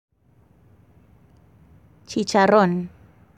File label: Medellin Slang Expression